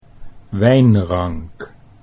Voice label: "wijnrank", Dutch

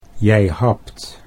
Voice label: "jij hapt", Dutch

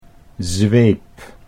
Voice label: "zweep", Dutch

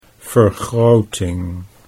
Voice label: "vergroting", Dutch

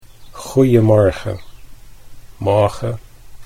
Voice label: "goejemorgen", Dutch

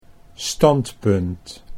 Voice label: "standpunt", Dutch

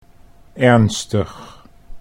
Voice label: "ernstig", Dutch

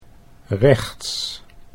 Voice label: "rechts", Dutch

